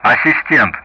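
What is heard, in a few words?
Speech Russian; Common Player Callsign Assistent; DCS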